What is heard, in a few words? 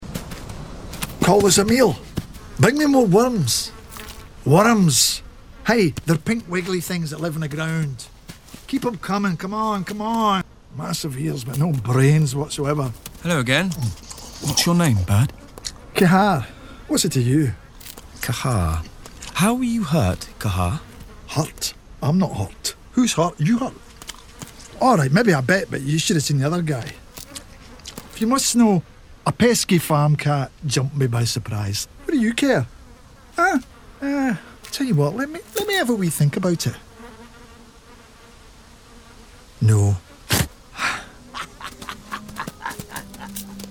Animation; Watership Down